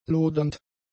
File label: "lodernd", German; adjectives